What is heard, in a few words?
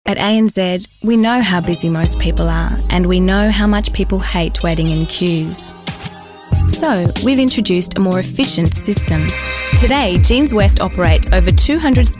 corporate